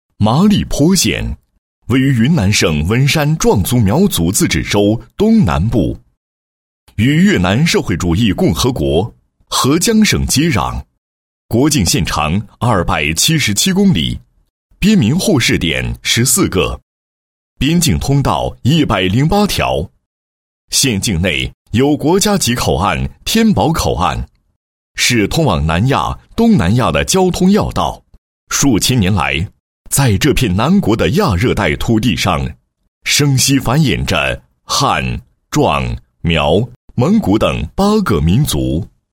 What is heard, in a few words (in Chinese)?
男 纪实 双拥模范县创建纪实; 云南省某县全国双拥模范县创建纪实